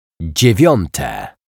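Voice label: "dziewiate", Polish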